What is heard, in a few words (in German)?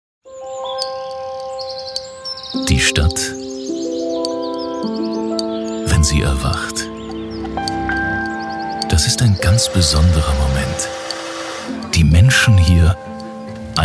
Werbung